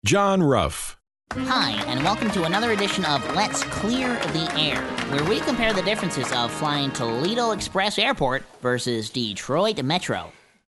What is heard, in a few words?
GAME SHOW